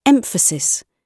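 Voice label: "emphasis", British English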